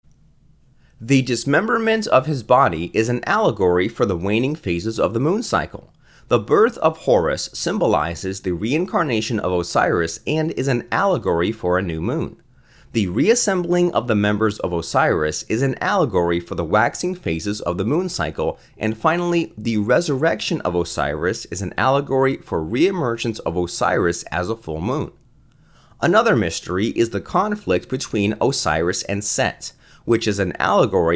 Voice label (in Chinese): 讲述